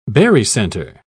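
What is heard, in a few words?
"barycenter", British English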